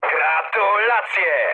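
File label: player killed enemy mood high, speech Polish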